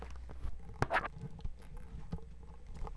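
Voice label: "zip", spoken word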